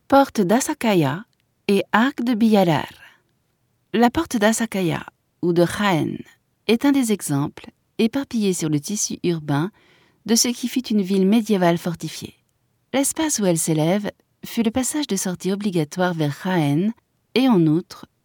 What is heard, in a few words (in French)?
audioguia, frances; audioguia baeza